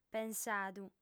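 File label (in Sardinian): "pensadu", female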